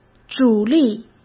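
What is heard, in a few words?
"主力", Mandarin Chinese; chinese-flashcards; hsk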